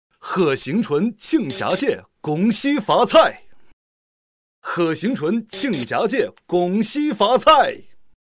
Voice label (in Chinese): 试音河南方言拜年男